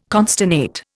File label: "consternate", Indian